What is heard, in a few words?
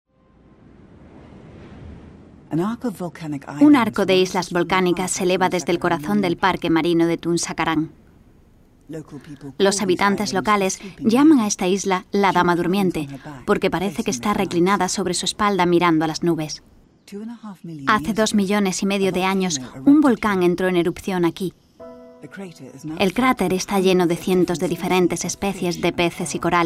spanish documentaries; actriz de doblaje española